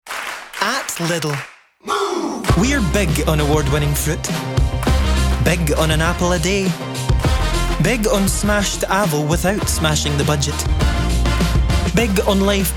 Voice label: Commercial; Lidl

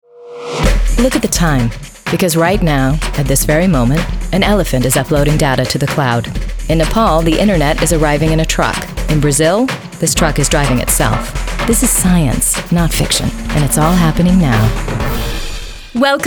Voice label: CORP NARR

